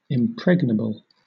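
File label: "impregnable", British English; pronunciations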